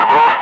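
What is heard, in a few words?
Russian speech, Ka-50 Wingman; Messages bombs away; DCS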